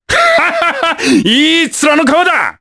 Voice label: Vox Skill jp; Baudouin; kingsraid